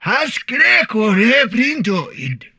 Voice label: humanoid portrait male; Stellaris; humanoids

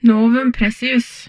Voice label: humanoid female; Stellaris

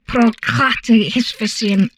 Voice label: humanoid female; Stellaris; humanoids

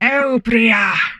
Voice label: humanoid female; Stellaris; humanoids

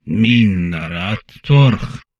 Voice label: humanoid portrait male; Stellaris; humanoids

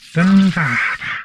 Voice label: humanoid portrait male; Stellaris; humanoids

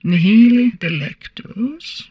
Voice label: humanoid female; Stellaris; humanoids

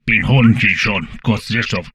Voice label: humanoid portrait male; Stellaris; humanoids

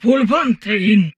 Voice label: humanoid portrait female; Stellaris; humanoids

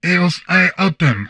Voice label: humanoid male; Stellaris; humanoids

